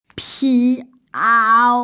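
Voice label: "pi ao", Mandarin Chinese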